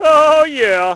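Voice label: "oh yeah", male; Splashdown; XBOX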